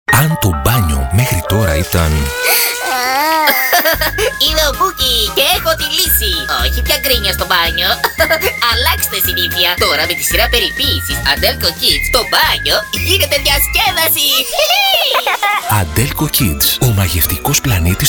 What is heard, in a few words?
KIDS GREEK MIXED Cartoon Voice; ADELCO